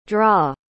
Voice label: "draw", school instructions